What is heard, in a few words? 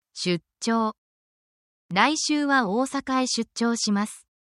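Japanese word, japanese phrases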